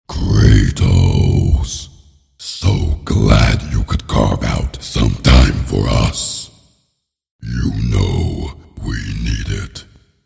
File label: Reel, en-GB